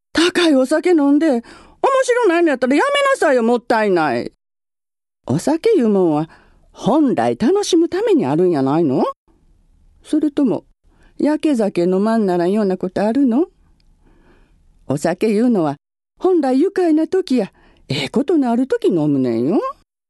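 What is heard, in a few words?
kansai dialect, female voice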